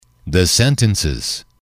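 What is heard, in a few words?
"the sentences", English, male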